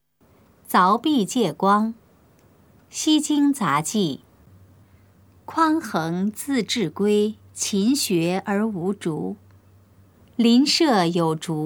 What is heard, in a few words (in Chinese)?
putonghua; 鑿壁借光; 普通話